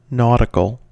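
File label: "nautical", English, American accent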